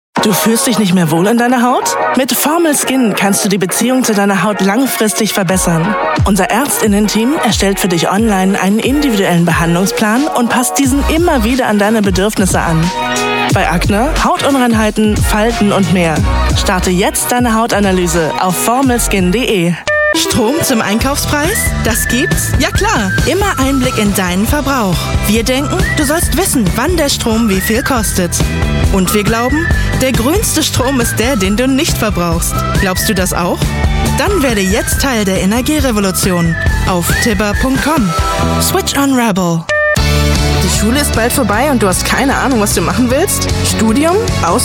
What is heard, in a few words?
german voice over female commercial